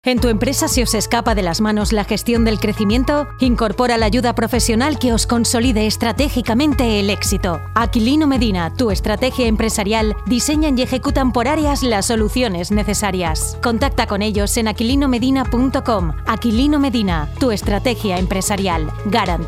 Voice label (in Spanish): CUÑA; EXITO